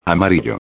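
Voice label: "amarillo", Spanish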